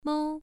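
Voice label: "mou", tone 1, pronunciation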